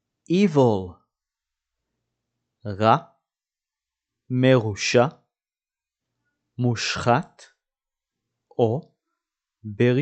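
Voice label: "evil" English, "רע מרושע מושחת ברשעות" Hebrew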